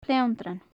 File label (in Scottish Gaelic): "pleandrainn", Gaelic; Faclan Nàdair